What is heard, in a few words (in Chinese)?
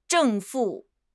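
"正负", Chinese; HSK6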